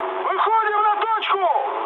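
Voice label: Russian near waypoint mood high, speech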